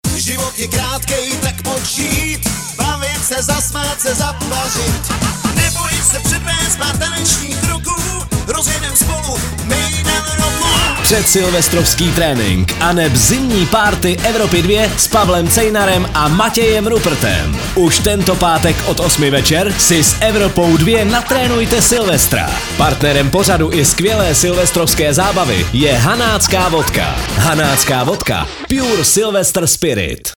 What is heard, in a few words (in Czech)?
promo zimni party; evropa2